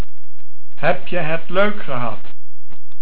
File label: Leuk, Dutch